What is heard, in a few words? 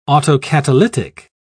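"autocatalytic", British English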